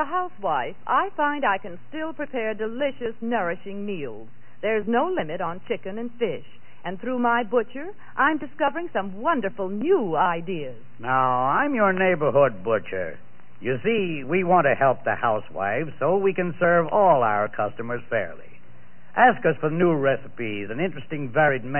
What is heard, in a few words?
psa - share the meat; ww2 promos and commercials